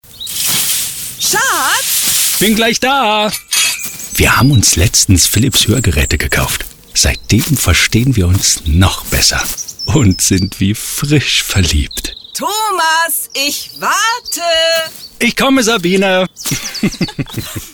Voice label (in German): Hoerprobe Werbung, Sprecher; Rolle Philips